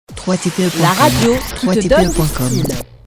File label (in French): La radio qui te donne du style. femme